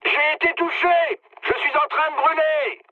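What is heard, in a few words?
French on fire mood high; War Thunder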